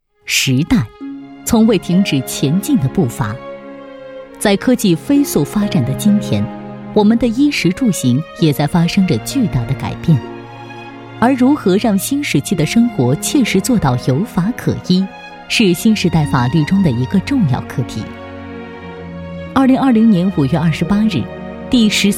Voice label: 讲述宣传

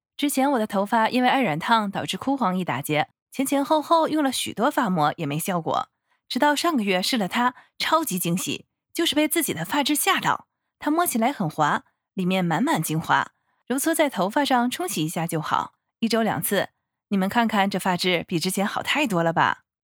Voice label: 抖音好物推荐发膜

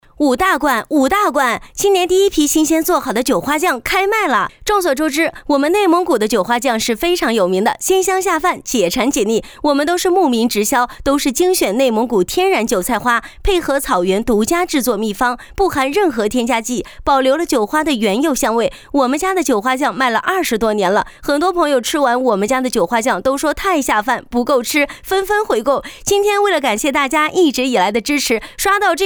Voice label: 激情安利风卖货

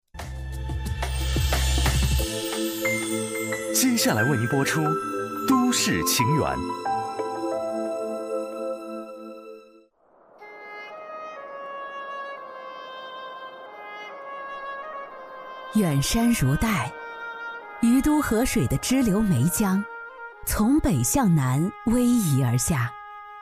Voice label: 纪录片; 江西电视台背上的情书

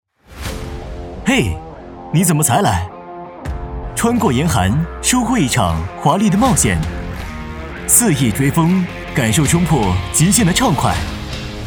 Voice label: 广告汽车

